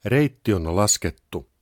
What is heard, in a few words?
route calculated, Finnish, male; Opas